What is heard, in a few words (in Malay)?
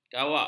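"kawak", Malay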